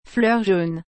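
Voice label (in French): "fleurs jaune", French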